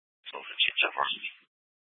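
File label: police dispatch, Scottsdale PD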